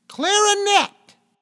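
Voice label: English clarinet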